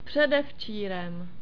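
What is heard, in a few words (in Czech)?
"předevčírem", czech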